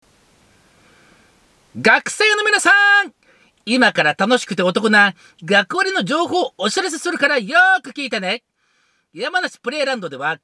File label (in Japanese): PR トーク